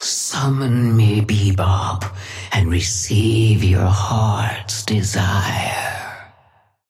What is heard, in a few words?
female ally start; Patron; bebop